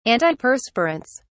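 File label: "antiperspirants", British English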